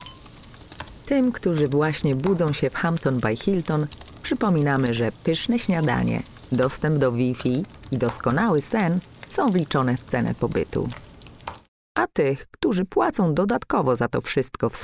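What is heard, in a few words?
Polish commercial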